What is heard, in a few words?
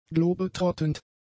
"globetrottend", German; adjectives